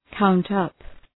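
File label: "count up", English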